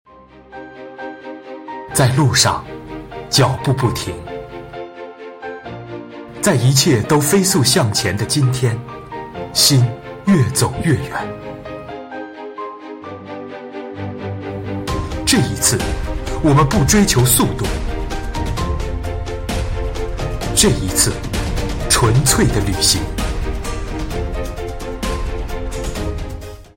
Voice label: 旁白

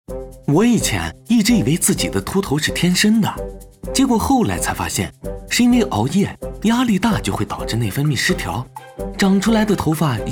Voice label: 素人抖音广告; 素人抖音信息流

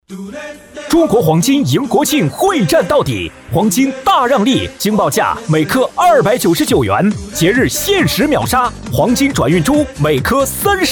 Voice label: 广告促销; 中国黄金

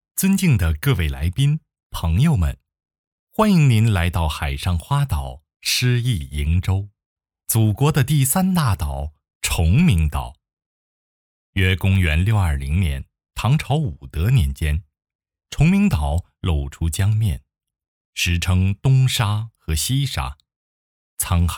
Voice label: 文旅介绍音频制作; 上海第十届花博会

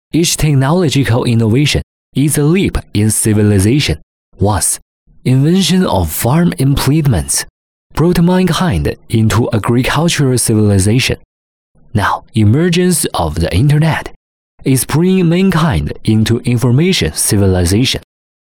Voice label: 英文译稿; 优豆数据

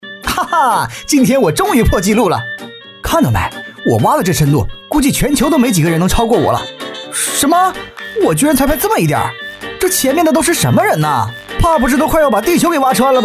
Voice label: 信息流游戏挖宝游戏

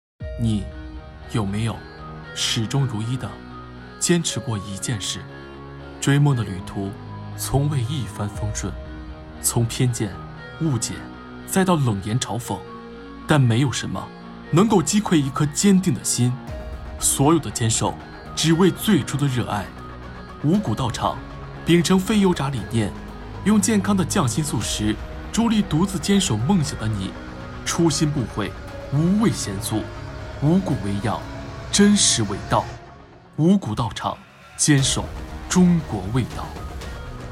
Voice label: 广告

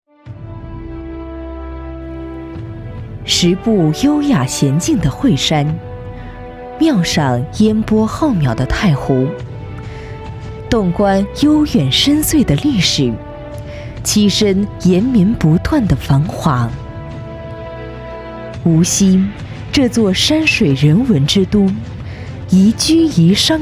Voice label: 宣传城市; 无锡

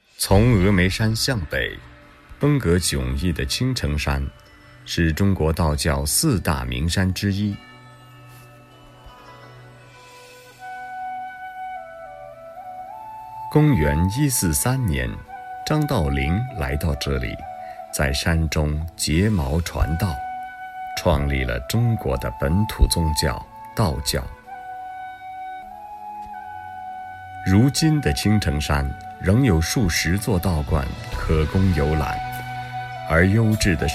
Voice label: 自然流畅样音

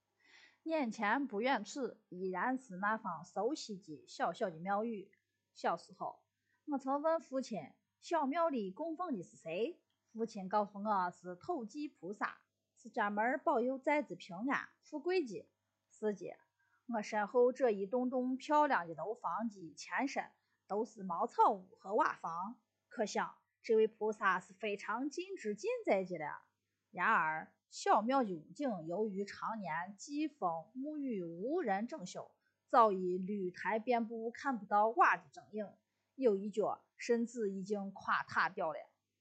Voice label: 陕西方言

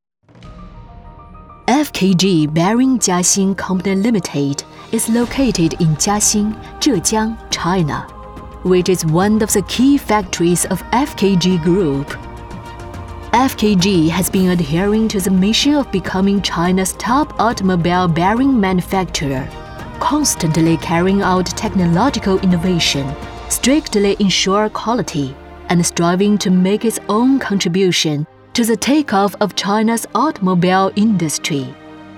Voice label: 英文大气企业宣传片

